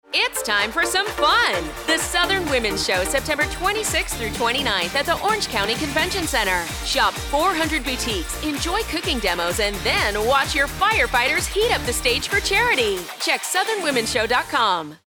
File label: Radio Spot; Southern Womens Show; Firefighters